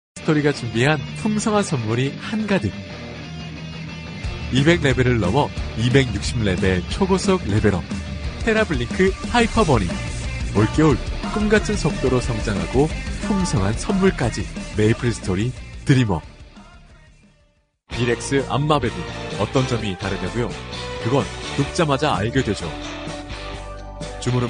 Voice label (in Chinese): 韩语男声